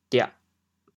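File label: "diah4", Teochew, chaoyang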